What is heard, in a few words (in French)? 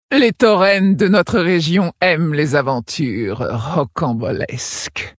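Female Flirt, voix françaises; Tauren Haut Roc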